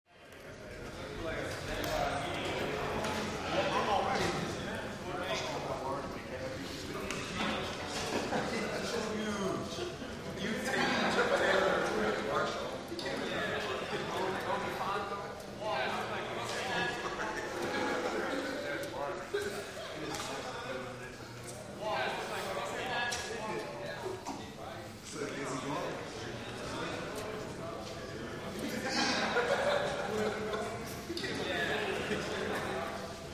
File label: Mens Locker Room Wal, men walla